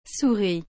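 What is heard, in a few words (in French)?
"souris", French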